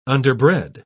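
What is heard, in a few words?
"underbred", British English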